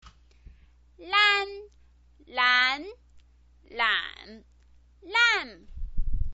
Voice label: "lan", chinese pinyin

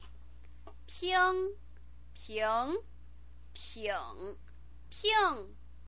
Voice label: "ping", Chinese pinyin

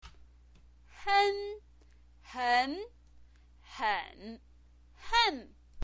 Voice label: "hen", chinese pinyin

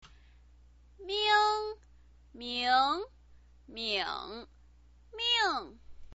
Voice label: "ming", Chinese pinyin voice

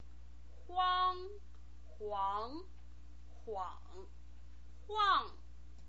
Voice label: "huang", Chinese pinyin

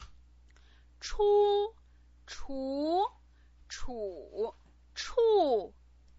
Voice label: "chu", Chinese pinyin

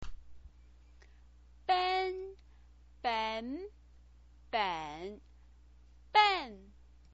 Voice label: "ben", chinese pinyin

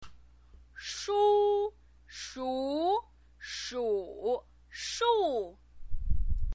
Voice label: "shu", chinese pinyin

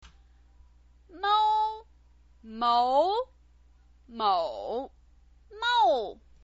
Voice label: "mou", Chinese pinyin voice

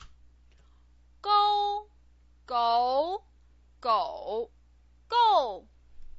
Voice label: "gou", Chinese pinyin

